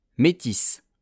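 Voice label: "metis", French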